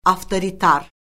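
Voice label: "avtoritar", Azerbaijani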